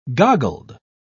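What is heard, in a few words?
"goggled", British English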